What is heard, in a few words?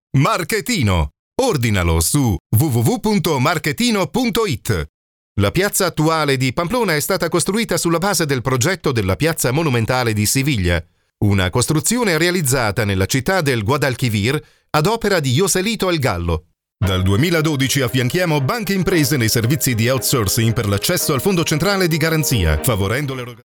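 Italian male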